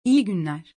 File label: Good day in Turkish; greetings in turkish